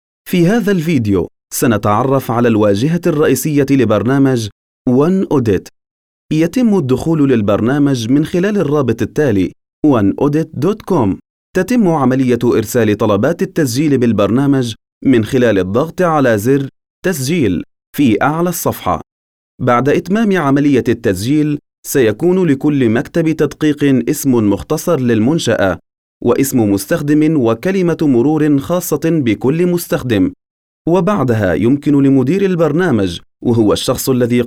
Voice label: arabic training e-learning